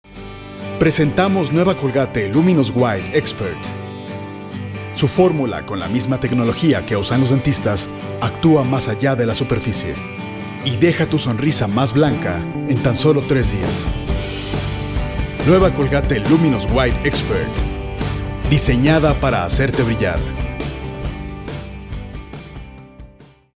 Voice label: SPANISH